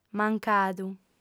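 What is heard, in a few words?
"mancadu", female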